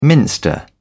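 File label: "minster", British English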